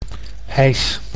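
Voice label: "ijs", Dutch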